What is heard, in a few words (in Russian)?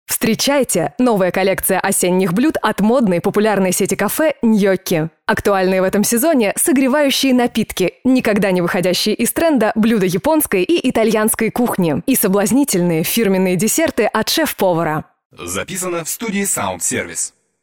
reklama